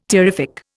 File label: "terrific", Indian